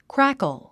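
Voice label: "crackle", English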